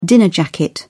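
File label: "dinner jacket", British English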